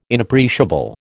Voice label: "Inappreciable", American